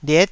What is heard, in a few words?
"liet9", Taiwanese syllable